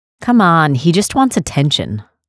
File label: Female